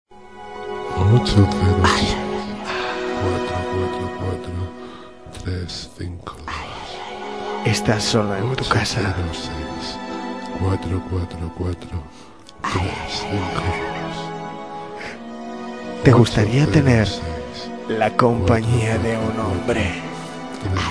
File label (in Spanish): Publicidad; Magnus